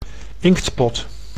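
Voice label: "inktpot", Dutch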